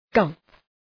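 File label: "gulp", English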